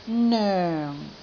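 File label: "noeng4", Cantonese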